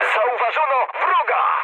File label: enemy detected mood high, speech pol; War Thunder